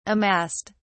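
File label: "amassed", English, British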